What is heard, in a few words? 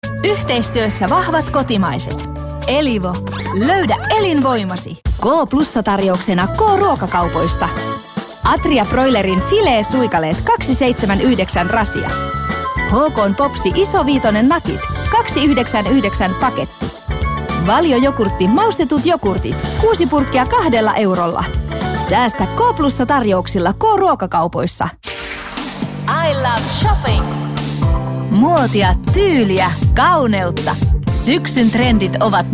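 Finnish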